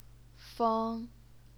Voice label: "feng1", chinese pinyin